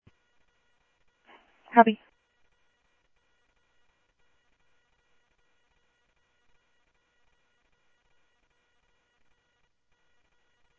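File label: Mesa Police Department, scanner audio; Mesa Simulcast; MESA PD 1 Fiesta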